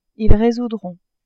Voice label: "ils résoudront", French

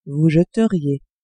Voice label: vous jetteriez, French

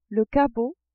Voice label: "cabot", French

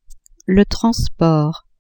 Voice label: "transport", french

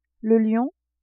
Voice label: "lion", French

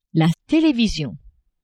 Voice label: "television", french; meubles

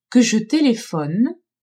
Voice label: "que je telephone", french; telephoner

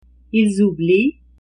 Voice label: "ils oublient", French

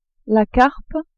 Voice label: "carpe", french

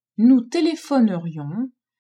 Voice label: "nous telephonerions", french

